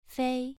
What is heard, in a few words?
"fei2", pronunciation